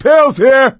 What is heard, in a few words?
spot pills; Left 4 Dead 2; coach